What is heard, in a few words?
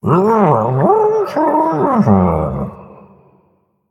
Male Murloc Well Played; zh